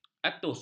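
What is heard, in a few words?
"atus", Malay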